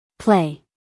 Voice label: "play", US female; everyone-can-use-english